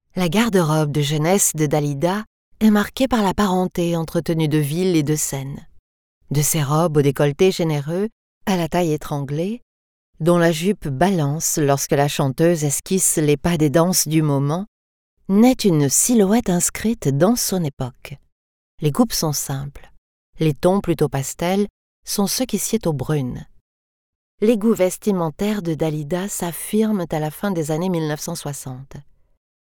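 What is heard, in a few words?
French, female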